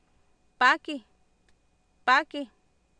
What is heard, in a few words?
"paakih" Nahuatl, Oapan, female; Oapan minimal pairs 2000